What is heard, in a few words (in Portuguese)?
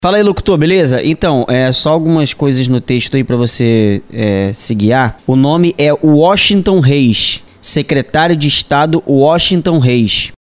voz guia